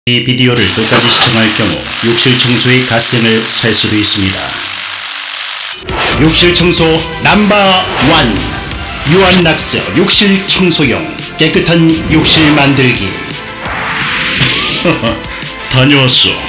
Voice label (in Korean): 70년대스타일 성우; 유한락스